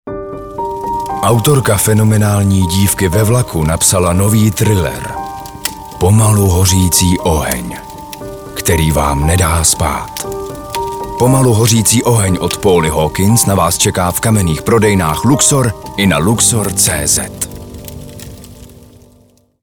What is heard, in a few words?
voiceover